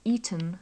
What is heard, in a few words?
"eaten", english